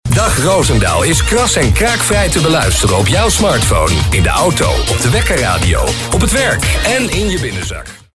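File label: Dutch, male, commercial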